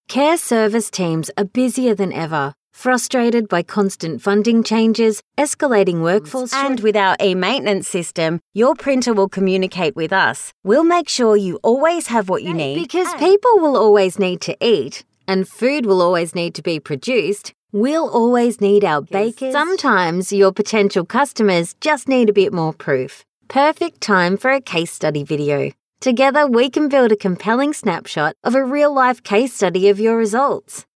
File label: Natural